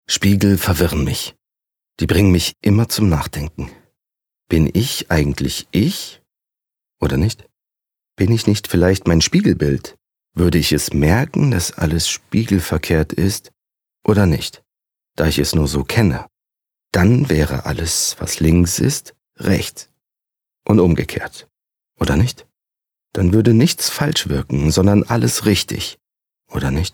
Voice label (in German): Innerer Monolog; LOFT VOICES